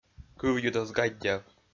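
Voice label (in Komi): "кывйыд оз гаддяв", Komi, male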